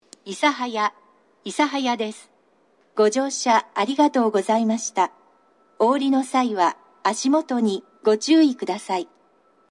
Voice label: 到着放送 女声, 諫早 西九州新幹線ホーム 自動放送; 始動が早く、ホームドアが開くと同時に鳴ることが多いです。

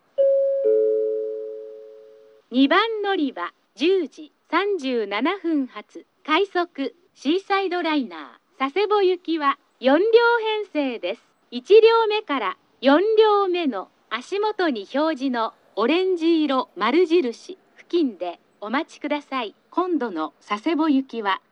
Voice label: 2番のりば案内放送; ハウステンボス; 2番のりば案内放送（快速シーサイドライナー 佐世保行き）; ※乗車口 早岐で後2両切り離し; 早岐より先の車両 オレンジ色○印5〜8

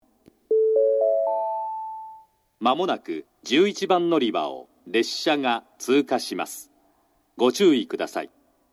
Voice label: 11番のりば通過放送, 新幹線ホーム; 筑後船小屋

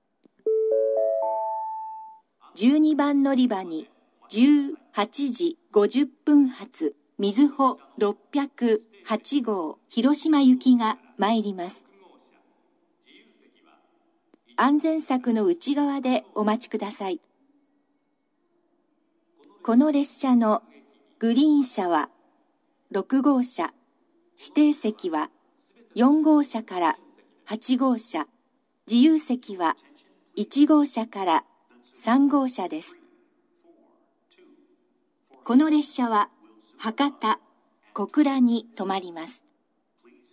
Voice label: のりば予告放送, 新幹線ホーム; 12番のりば予告放送（みずほ608号 広島行き）; kumamoto

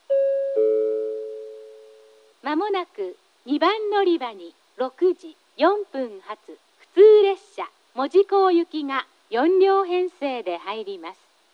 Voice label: 2番のりば接近予告放送; 普通 門司港行き; takeo onsen; 江北で肥前浜方面からの列車と併結しますが、その案内はありません。

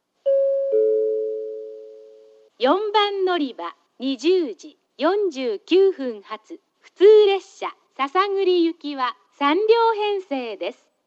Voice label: 4番のりば案内放送（普通 篠栗行き）; 吉塚

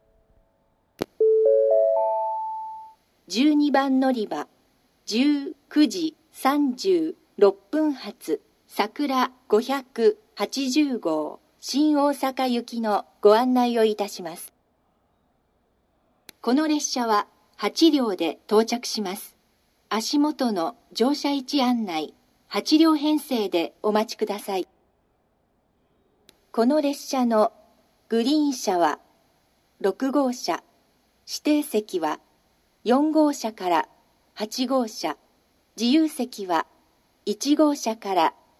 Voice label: 12番のりば案内放送, 新幹線ホーム; 新鳥栖; さくら580号 新大阪行き

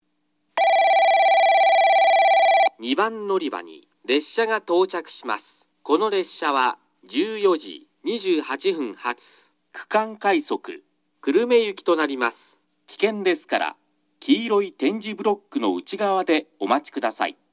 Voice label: 2番のりば接近放送（区間快速 久留米行き）

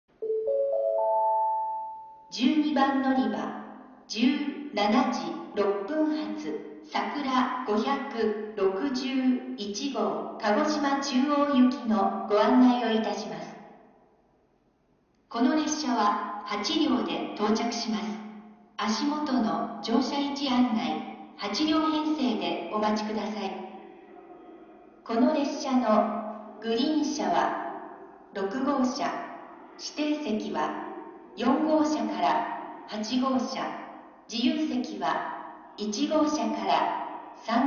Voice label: 筑後船小屋駅 12番のりば案内放送＋遅延放送・遅れ約55分（さくら561号 鹿児島中央行き）; 九州新幹線SIRIUS（シリウス）放送

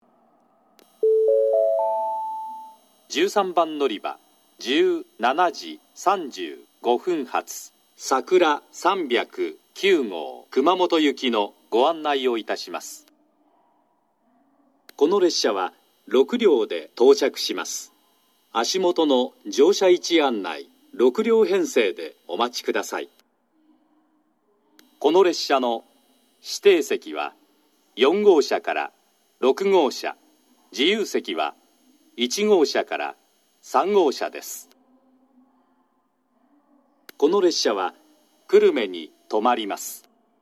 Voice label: 13番のりば案内放送, 新幹線ホーム; 新鳥栖; さくら309号 熊本行き

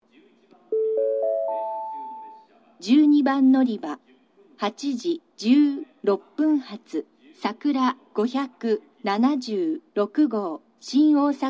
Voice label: のりば案内放送, 新幹線ホーム; 12番のりば案内放送（さくら576号 新大阪行き）※西明石停車; ⇒西明石に停車する、臨時のさくら号です。; kumamoto

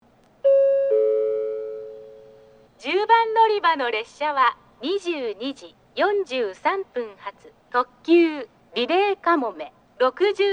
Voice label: 10番のりば停車中放送; リレー特急; リレーかもめ64号 門司港行き

